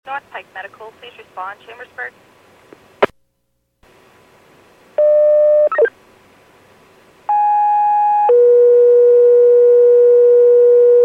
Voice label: PAGING